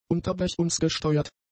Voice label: "unterbrechunsgesteuert", German; adjectives

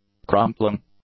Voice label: "crumpling", English; nouns

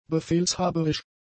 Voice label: "befehlshaberisch", German; adjectives

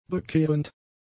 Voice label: "bekehrend", German; adjectives

